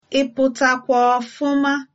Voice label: good morning, igbo